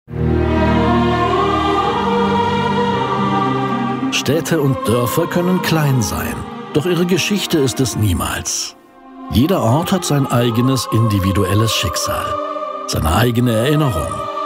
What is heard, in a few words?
Voice Over German